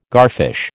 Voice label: "garfish", American